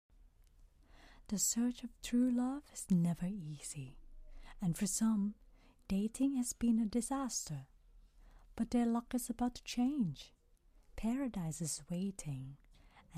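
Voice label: Thai, accented English; GoLocalise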